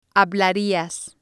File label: "hablarias", spanish